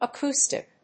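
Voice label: "acoustic", English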